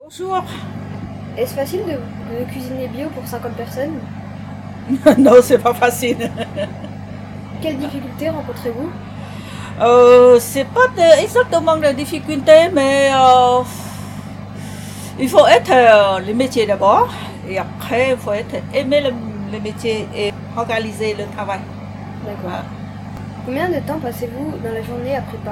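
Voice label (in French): interview cuisinière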